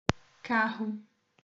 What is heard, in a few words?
"carro", Portuguese